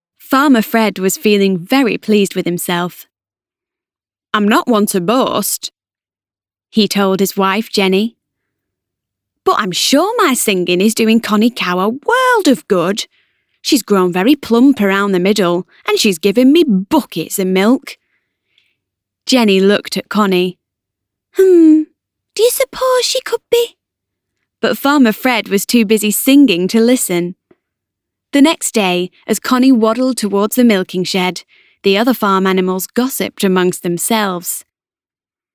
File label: English British audiobooks